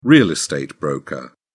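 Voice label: "real estate broker", British English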